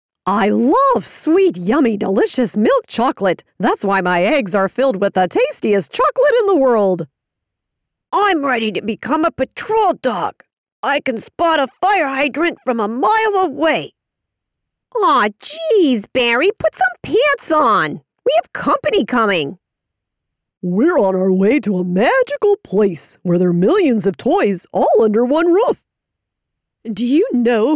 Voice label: Character